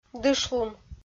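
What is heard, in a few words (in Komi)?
"дышлун", Komi, female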